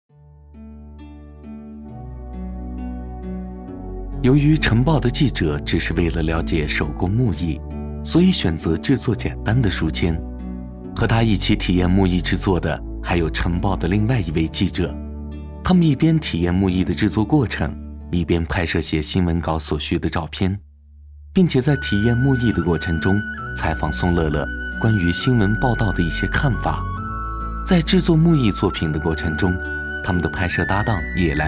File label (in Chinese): 清新纪录片